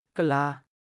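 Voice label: "ကုလား", Burmese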